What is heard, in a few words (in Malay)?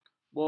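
"botor", Malay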